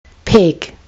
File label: "peg", kiwi english